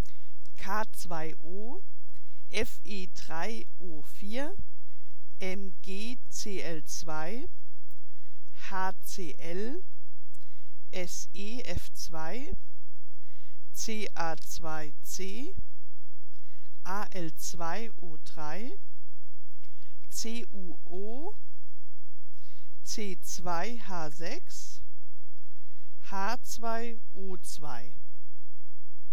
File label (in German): Vorgelesene Symbolschreibweise